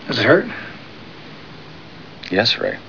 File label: hurt; due south